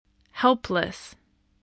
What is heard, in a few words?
"helpless", English, spelling